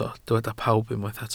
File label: "pawb", Welsh